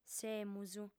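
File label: "semus", female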